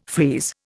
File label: "freeze", Indian